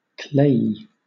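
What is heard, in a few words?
"clayey", English, British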